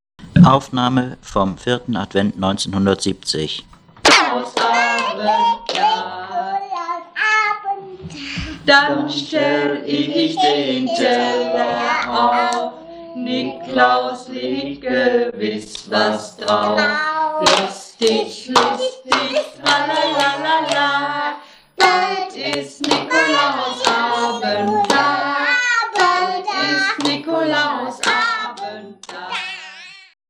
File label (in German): familie amateur, tonband